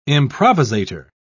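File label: "improvisator", British English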